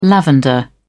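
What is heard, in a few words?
"lavender", British English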